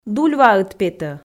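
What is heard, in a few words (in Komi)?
"дульваыд петӧ", Komi, female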